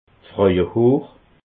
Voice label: "frauehoor", alsace

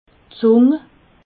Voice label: "zung", alsace

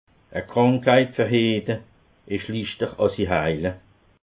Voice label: "krankheit", alsace

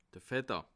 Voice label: "fetter", alsace

